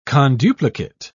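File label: "conduplicate", British English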